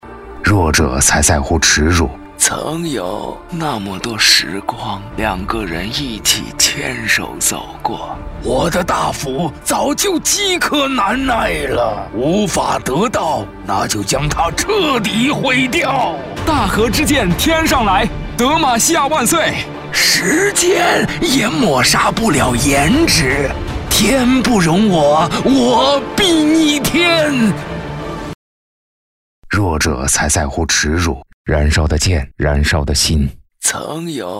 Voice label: 男 角色 游戏台词多种声线

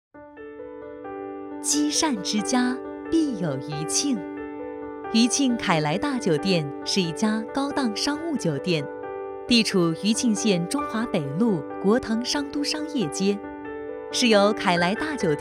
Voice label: 女 宣传片 酒店介绍

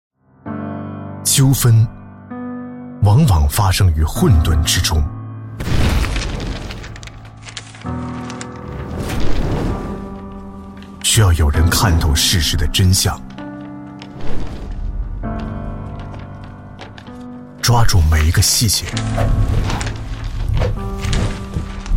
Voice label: 男 法院宣传片; 湖南省

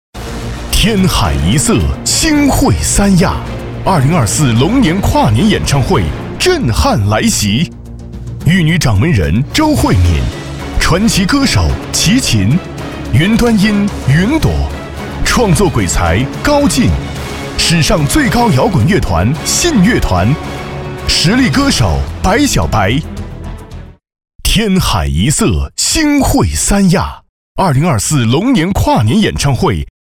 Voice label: 男,演唱会预告

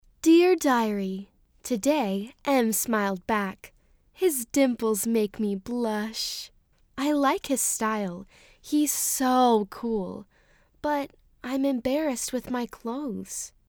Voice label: 青少年音-亲爱的日记

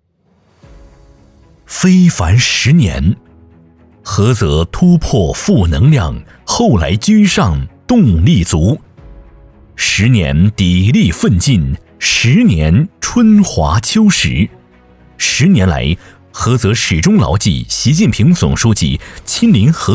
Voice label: 男 党建汇报片; 菏泽非凡十年

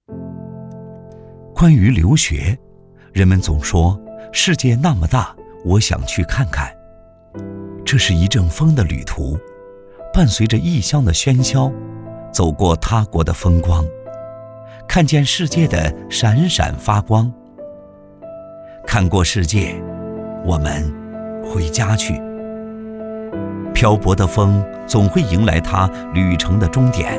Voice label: 男, 走心旁白; 关于留学

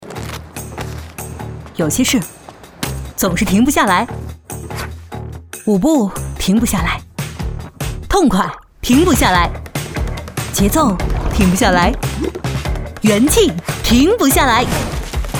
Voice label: 女 个性宣传片 节奏故事 过桥米线

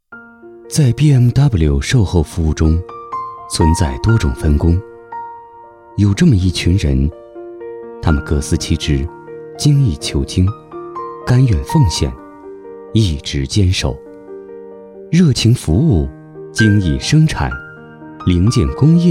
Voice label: 男 旁白

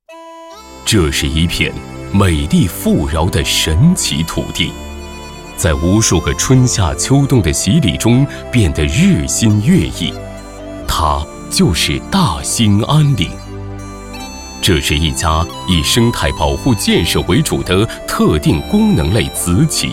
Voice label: 男 专题

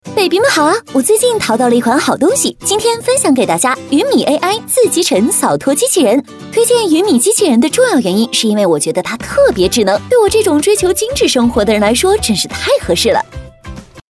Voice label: 女 飞碟 欢脱; 扫拖机器人

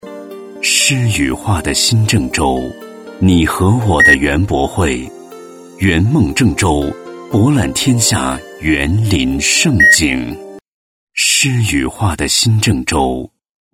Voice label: 男 广告 品质唯美; 郑州园博会

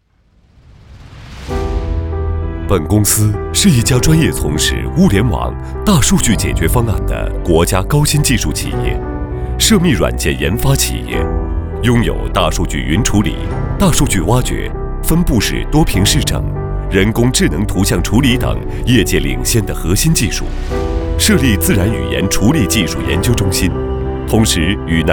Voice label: 男 独白 物联网

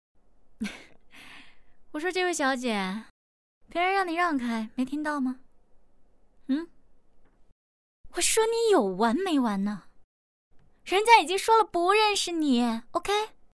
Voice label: 女 短剧台词 坏人

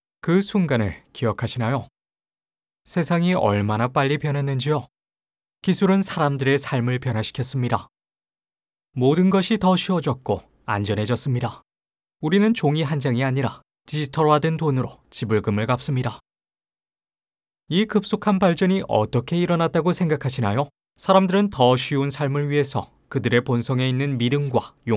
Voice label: 角色 低沉

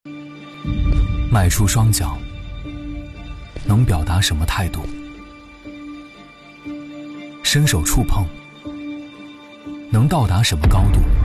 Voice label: 男 旁白 坚定 招生宣传; 北京理工

